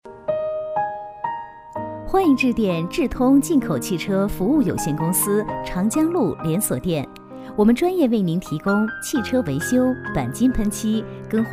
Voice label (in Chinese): 女 汽修 彩铃